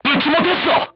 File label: voice message armor not pierce, korean, crew tanks